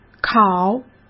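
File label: "烤", Mandarin Chinese; hsk; chinese-flashcards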